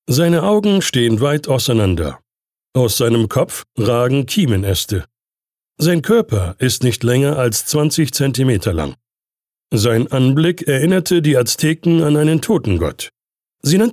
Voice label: Dokumentarischer Text

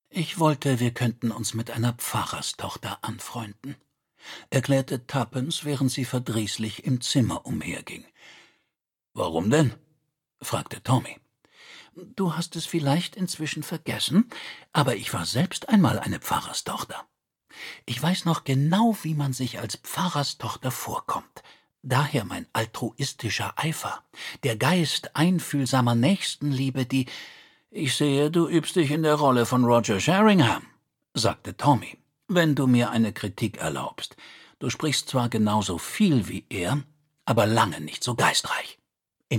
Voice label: Hörbuch figürlich; Die Pfarrerstochter

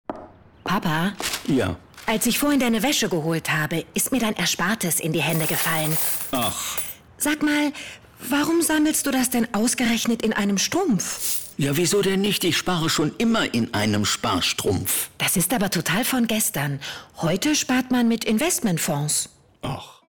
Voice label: Werbedialog

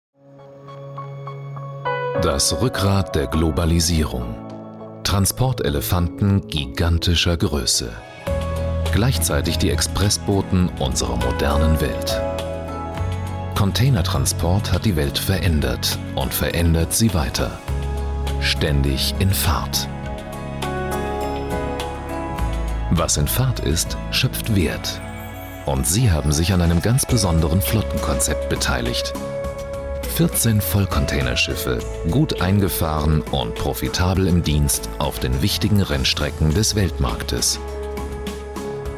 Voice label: kommentar

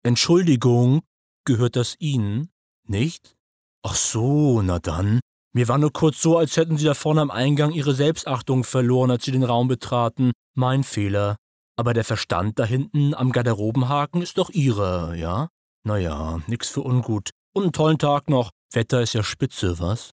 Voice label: casting sync arrogant

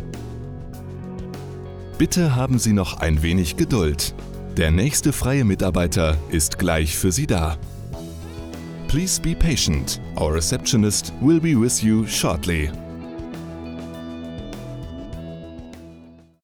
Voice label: Warteschleife Hotel; Hotel Cult

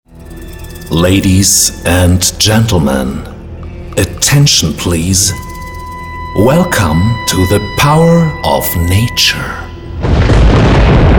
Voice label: Englisch; DEXTROENERGY - strong. distinctive, mystical

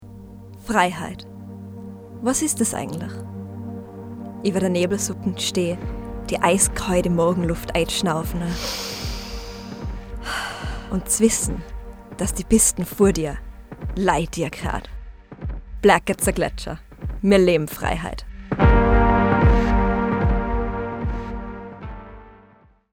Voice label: sterreichisch Werbung Skigebiet

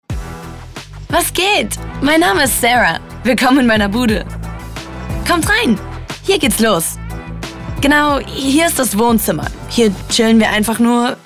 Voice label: Voice Over Deutsch, Overlay, German; CRIBS

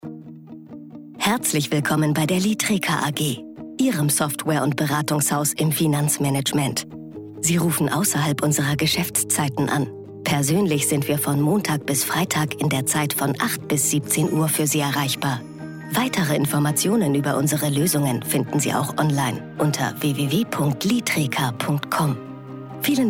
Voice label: Telefonansage; Litreca

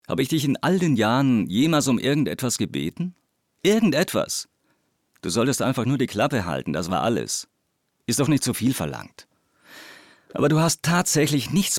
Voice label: synchron

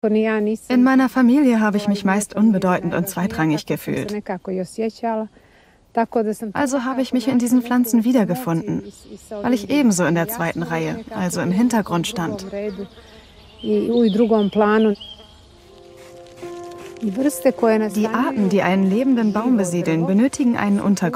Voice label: Voiceover Doku Zusammenschnitt; raue welten

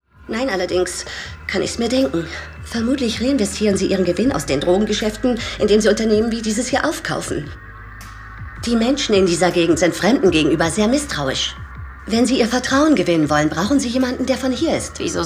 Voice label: energisch selbsbewusst; Big Sky

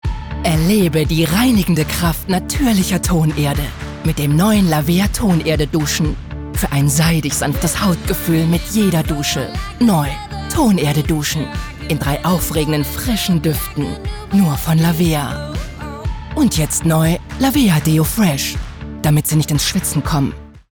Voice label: Werbung; Lavea

